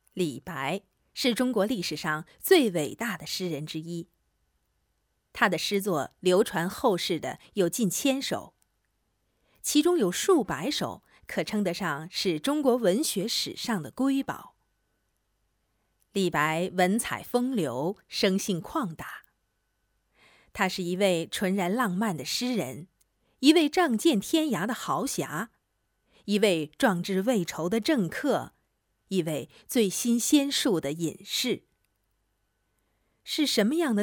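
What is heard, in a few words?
chinese mandarin female narration; voice demos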